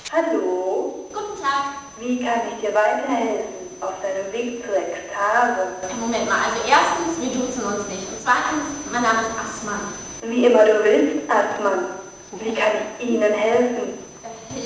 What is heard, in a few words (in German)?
telefonat